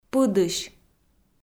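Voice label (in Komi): "пыдысь", Komi, female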